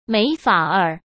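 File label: "没法儿", Mandarin Chinese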